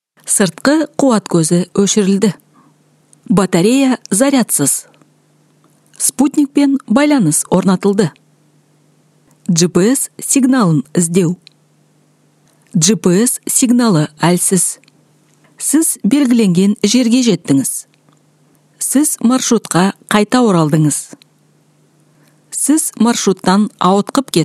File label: kazakh female